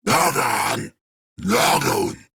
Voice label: Idle Grineer Ghoul Sarge, en; Grineer Ghoul Drill Sargeant; Battle Barks

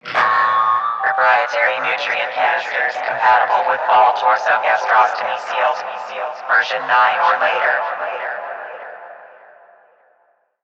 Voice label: PA System, en; Warframe; Solaris Venus

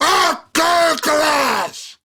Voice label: Attack Grineer Ghoul Sargeant, en; Grineer Ghoul Drill Sargeant; Battle Barks

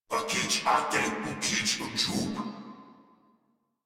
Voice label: Patrol Crewmen, Battle Barks; Dialog; Warframe; Corpus Crewmen; Crewman C

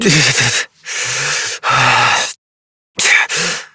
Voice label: Operator Voice

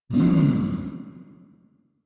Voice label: Pain, en

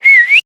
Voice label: Horse Stop Adult; Duviri

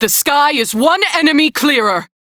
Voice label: Crew Gun Kill Fighter, Female; Dialog; en

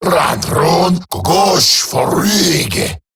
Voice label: Cover Me Eximus Grineer Male; Battle

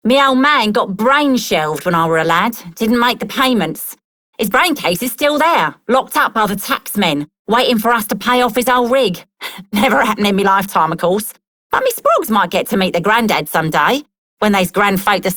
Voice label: Female Worker, en; Dialog; Warframe; Solaris Venus

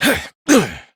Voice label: Horse Mount Adult; Duviri

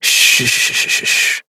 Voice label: Horse Calm Adult; Duviri

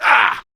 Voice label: Threatened, Male; Warframe; Grineer Male B; Battle Barks

